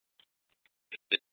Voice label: Dispatch; Scottsdale PD; Nth Dispatch